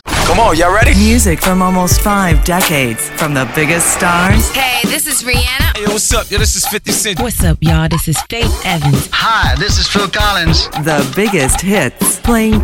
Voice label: JINGLES PROMO INTROS DROPS FOR DJS RADIO TV MONTAGE; MY VENUS RADIO GREECE